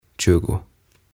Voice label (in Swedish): "Tjugo" Kaksikymmentä